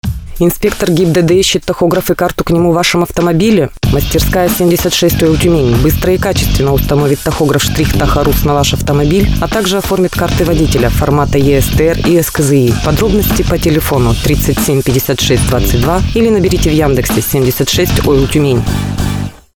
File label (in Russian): Партнерский рекламный ролик